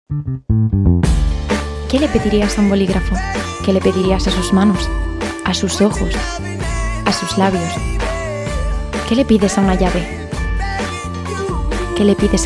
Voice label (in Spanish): Spot publicitario; CocaCola